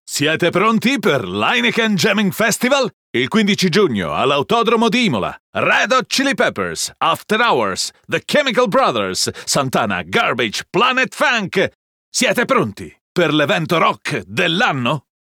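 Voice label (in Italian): Italian advert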